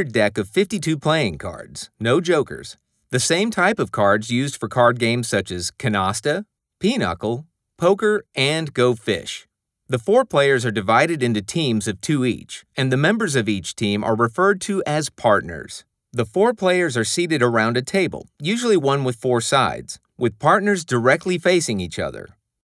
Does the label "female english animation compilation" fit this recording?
No